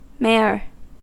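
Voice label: "mare", US English; pronunciations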